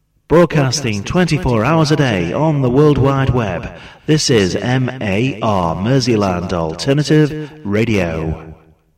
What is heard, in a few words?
Shoutcast, Voiceovers; MAR